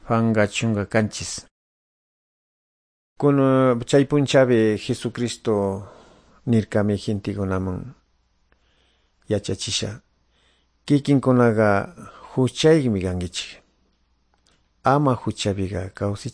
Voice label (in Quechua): good news quichua de calderon